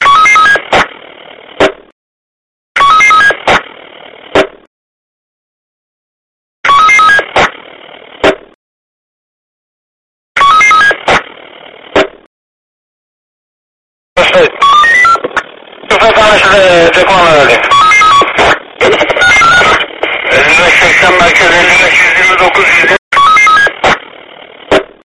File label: police radio